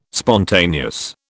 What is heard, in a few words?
"spontaneous", British